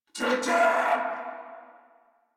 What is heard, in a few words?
Reload Corpus Venus Male; Warframe; Battle Barks